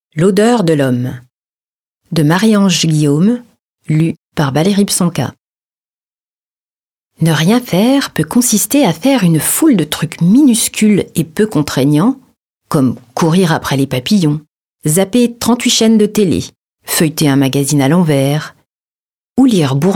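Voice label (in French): LIVRE AUDIO, Voix off; L'odeur de l'homme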